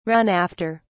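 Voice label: "run after", British English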